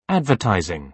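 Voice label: "advertising", British English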